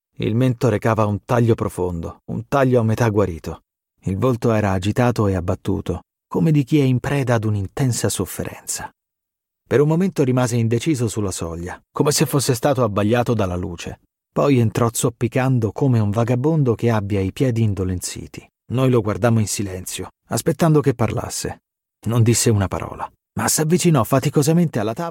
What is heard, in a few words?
italian voice audiobook